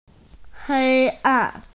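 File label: "darkness", Mandarin; hei1an4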